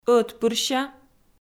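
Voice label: "ӧтпырся", Komi, female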